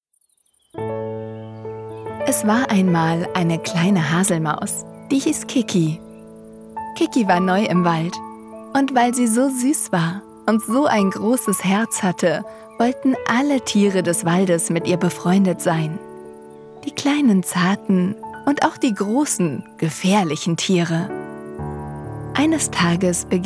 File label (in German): hoerspiel, hoerbuecher; kiki